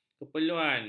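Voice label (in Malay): "keperluan", Malay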